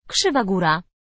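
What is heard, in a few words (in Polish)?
"krzywa góra", Polish; sr0wx; pl google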